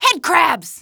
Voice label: headcrabs, female; Half-Life 2